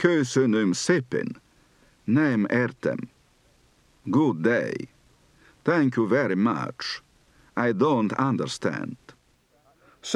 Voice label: Hungarian, Accent

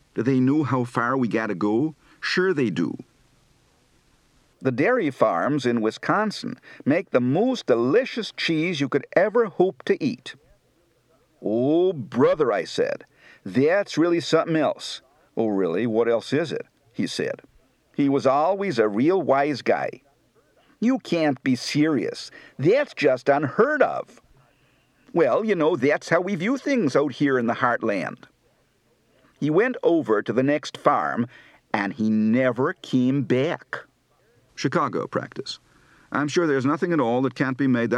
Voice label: Northern U.S., Accent

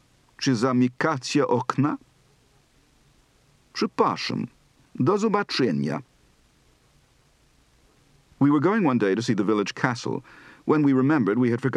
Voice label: Polish, Accent

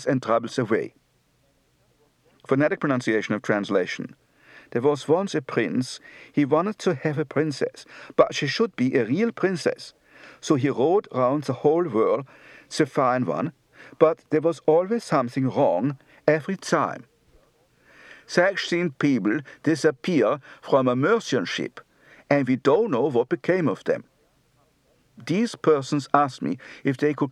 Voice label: Danish, Accent

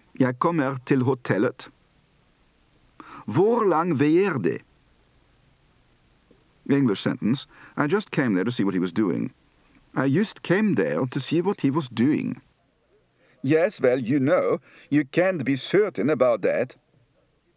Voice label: Norwegian, Accent

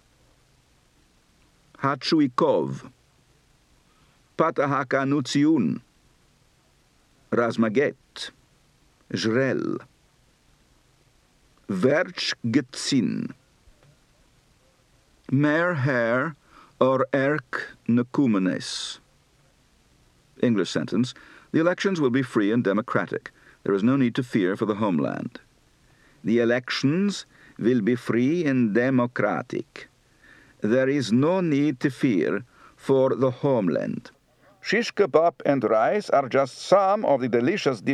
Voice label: Armenian, Accent

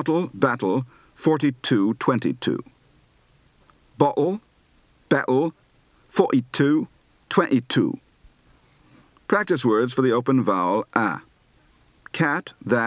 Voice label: English Provincial, Accent

